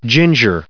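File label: "ginger", US English, recite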